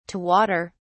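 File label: "to water", British English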